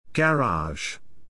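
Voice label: "garage", English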